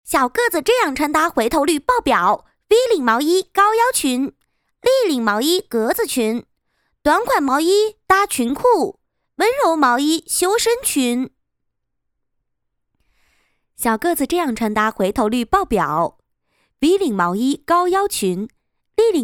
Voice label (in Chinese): 女 短视频 穿搭